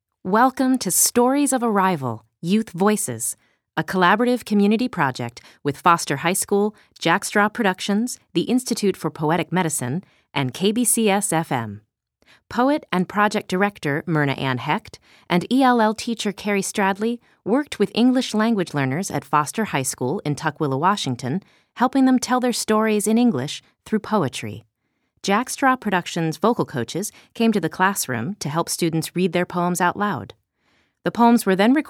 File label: Opening Narration